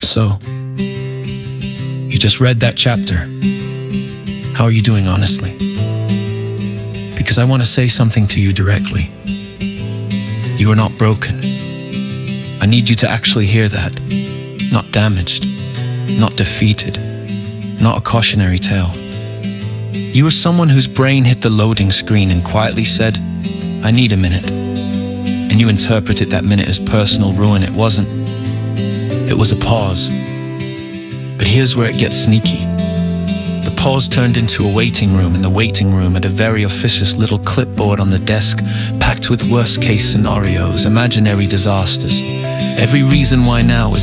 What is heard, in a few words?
Male; chapter 1 audio